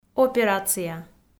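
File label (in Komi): "операция", Komi, female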